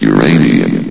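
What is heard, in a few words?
"uranium", male; vox; source engine; hl1; video games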